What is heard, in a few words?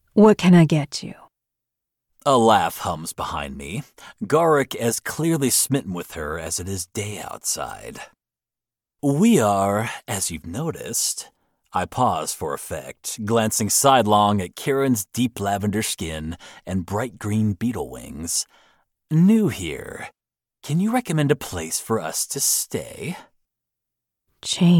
DUET Audiobook Fiction Cozy Monster Romance